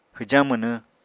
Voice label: "hwjañ mwnwñ", apatani